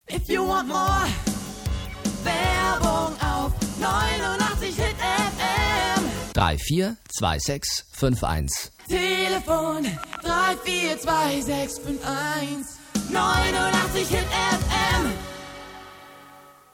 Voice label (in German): hit fm Werbung promo